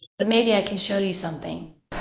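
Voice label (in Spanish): Voice Acting, Spanish, female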